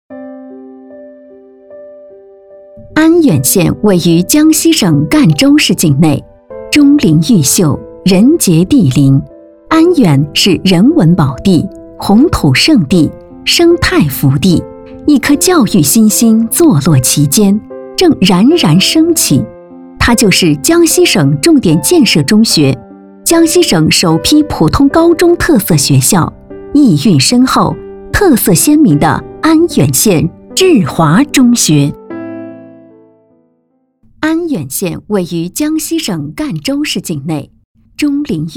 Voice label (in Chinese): 大气